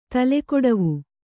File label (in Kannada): "ತಲೆಕೊಡವು", Kannada